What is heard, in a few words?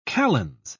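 "kalends", British English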